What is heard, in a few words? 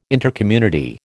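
"Intercommunity", American English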